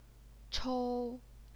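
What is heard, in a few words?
"chou", first tone, Chinese; 抽; 瘳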